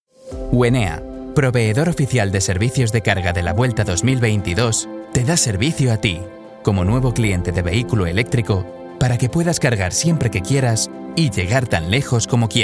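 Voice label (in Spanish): corporativo España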